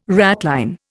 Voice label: "ratline", Indian